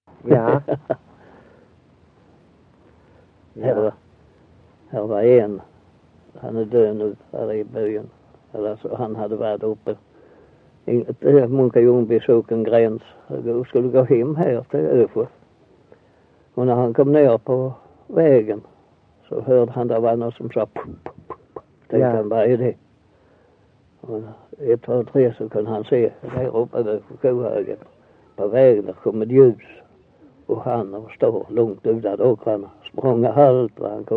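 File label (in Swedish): Össjö Skåne, Svenska sagor dialekter; Folkminnen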